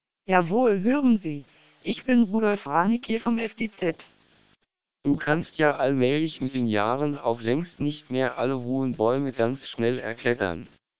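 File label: SPR 1200 short, German speech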